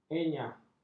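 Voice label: "enyah", Malay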